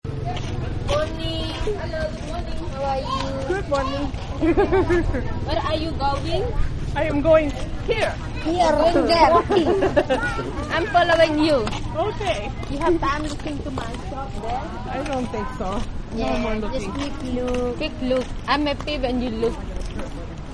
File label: Bali Sales Pitch; Cities; Backgrounds; City